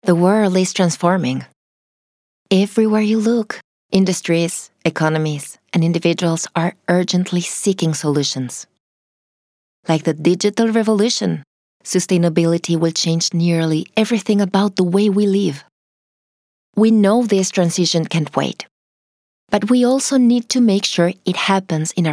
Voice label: Spanish English; Unreasonable CHANGE